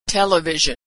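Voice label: "television", American English pronunciation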